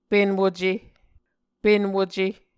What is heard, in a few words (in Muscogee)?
"penwuce", Muscogee